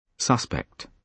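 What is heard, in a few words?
"suspect", British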